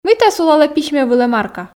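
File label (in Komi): мыйта сулалӧ письмӧ вылӧ марка, Komi, female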